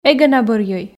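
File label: эгӧ на бӧрйӧй, Komi, female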